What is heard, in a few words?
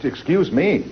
"excuse me", Voice